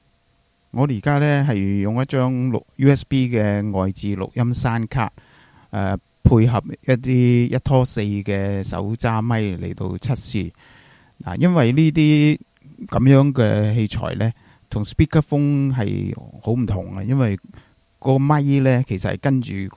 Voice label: USB audio test